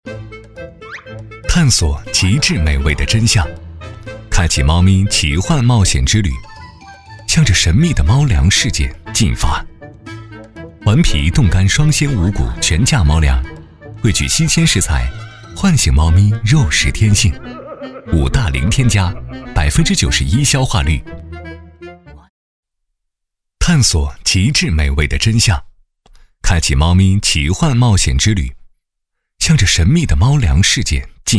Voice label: 男 广告 猫粮; 顽皮冻干双鲜无谷全价猫粮

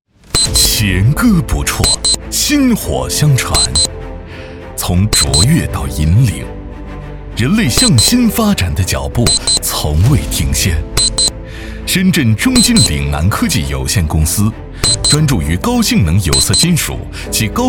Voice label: 男 公司宣传

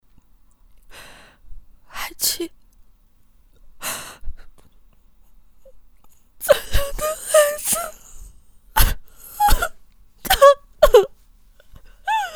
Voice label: 女 角色 悲伤 妻子

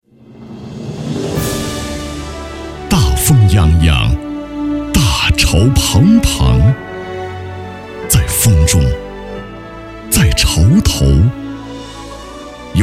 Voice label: 男; 大潮

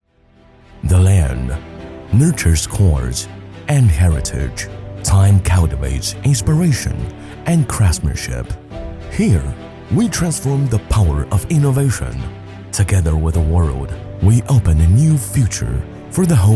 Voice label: 男 英文 磁性; 磁性晶创

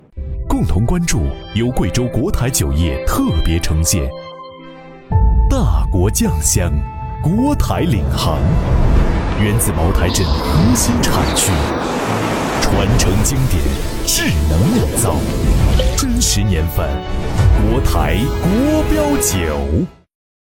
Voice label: 男; 国台酒

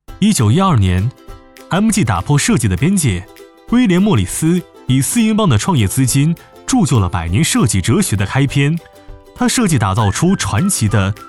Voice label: 男 轻松介绍 设计美学

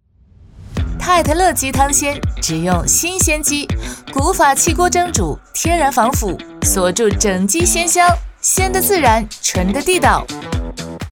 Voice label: 女 TVC; 太太乐鸡汤鲜

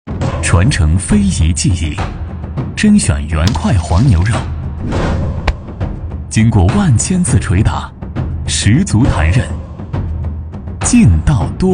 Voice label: 广告; 潮汕牛肉丸

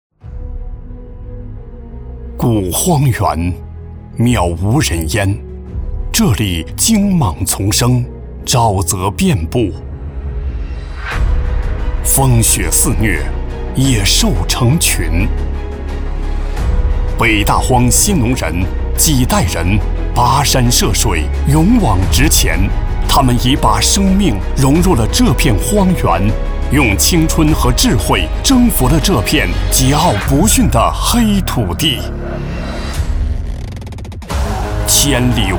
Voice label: 男 企业; 新农人

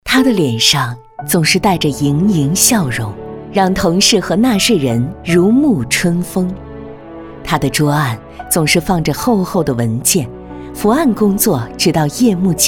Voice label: 女 亲切温情人物标兵事迹材料

